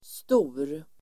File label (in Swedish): "stor", Swedish